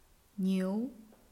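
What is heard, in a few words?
"niu2", Chinese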